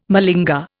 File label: "malinger", Indian